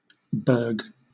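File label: "berg", British English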